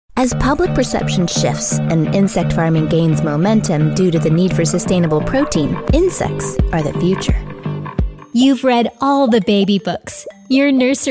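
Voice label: female English US narration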